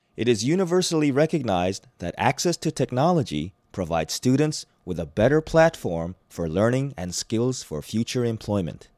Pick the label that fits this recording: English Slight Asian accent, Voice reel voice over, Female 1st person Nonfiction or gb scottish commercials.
English Slight Asian accent